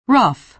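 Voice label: "rough", American English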